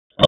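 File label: Raleigh Police Southwest District Dispatch, p25; wake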